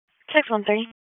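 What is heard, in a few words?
Police Southwest District Disp, radio; Raleigh; wake; p25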